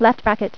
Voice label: "Left Bracket", Voice Female; TalkingKeys

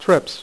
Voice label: TRIPS, Dealer's Speech; PowerPOKER Demo

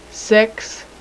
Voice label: 5 female; numbers

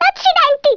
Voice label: "bummer", Italian

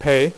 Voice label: "pay", dealer's speech; PowerPOKER Demo

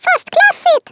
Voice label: "excellent", Swedish, Speech; 11khz; International

